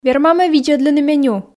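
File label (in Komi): верма ме видзӧдлыны меню, Komi, female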